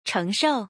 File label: "chengshou", Chinese